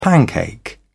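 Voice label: "pancake", British English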